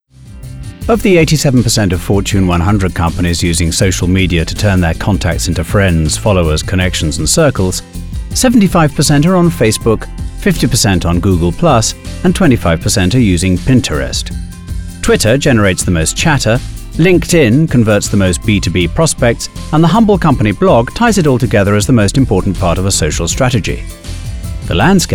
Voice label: Corporate Narrative